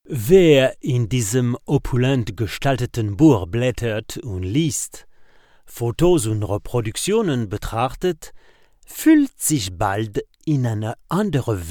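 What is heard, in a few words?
Deutsch Franz accent, male voice over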